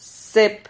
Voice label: "sep", silabas

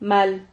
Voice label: "mal", Silabas

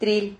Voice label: "tril", Silabas

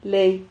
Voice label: "ley", Silabas

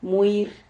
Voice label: "muir", Silabas

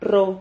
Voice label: "rrho", silabas

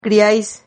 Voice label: "griais", Silabas

